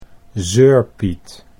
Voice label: "zeurpiet", Dutch